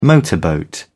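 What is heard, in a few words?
"motorboat", British English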